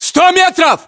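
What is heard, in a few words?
threat distance 100, battlechatter, Russian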